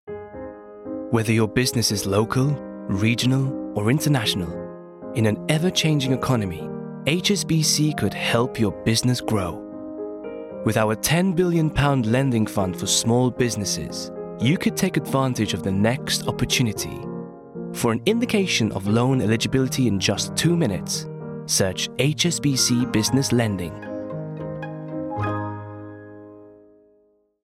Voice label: Commercial Neutral Accent; HSBC

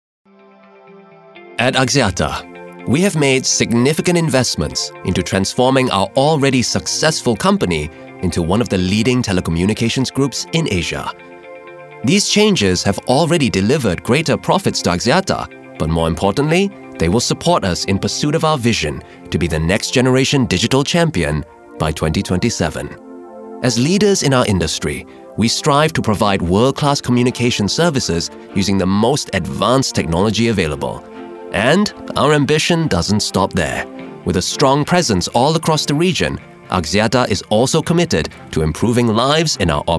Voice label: Malay accent corporate; Axiata

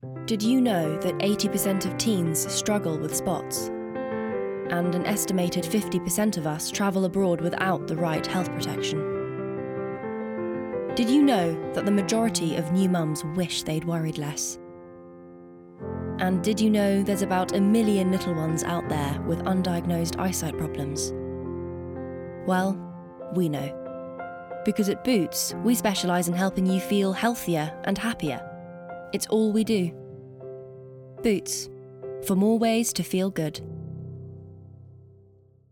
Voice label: Commercial Reel; Boots

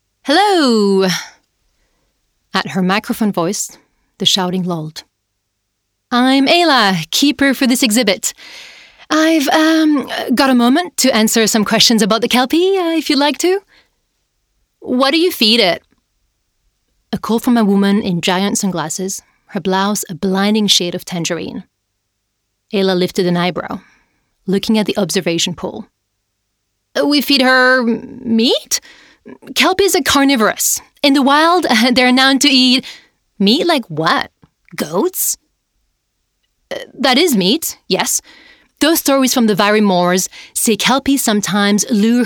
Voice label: Audiobook

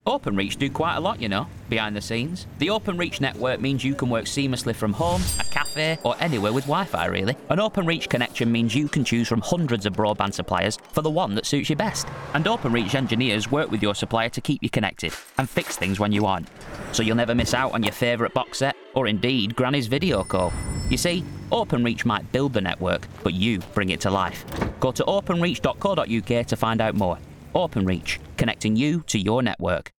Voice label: Radio Commercial; Openreach

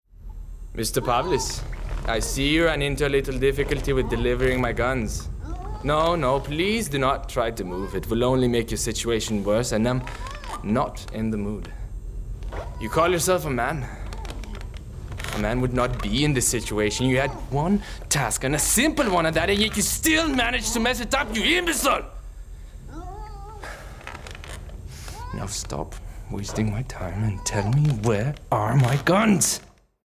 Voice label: VO Reel Gaming SWEDISH

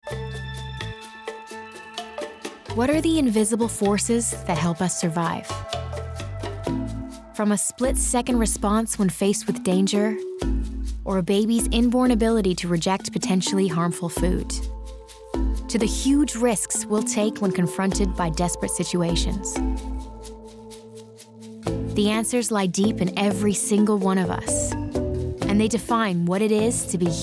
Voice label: DOCUMENTARY African Savannah

